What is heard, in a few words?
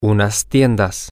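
some stores, Spanish, male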